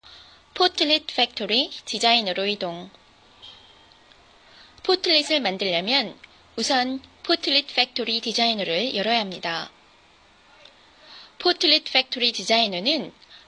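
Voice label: Korean, female, corporate; korean accent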